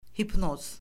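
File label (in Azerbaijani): "hipnoz", Azerbaijani; Orfoqrafiya Lüğəti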